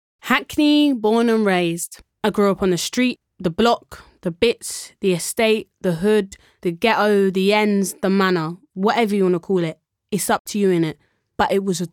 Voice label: female English multiple accents audiobook compilation